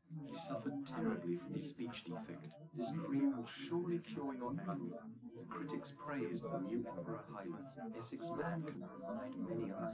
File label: male, live rec, 250ms, 5cm